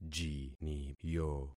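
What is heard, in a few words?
"jiniyo", concatenated syllables; Affixing experiment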